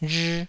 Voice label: "rhii2", taiwanese